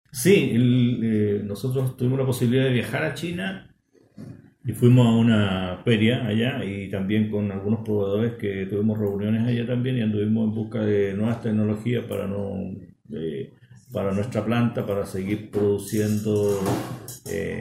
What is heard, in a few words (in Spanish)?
Cuña